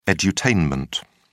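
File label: "edutainment", British English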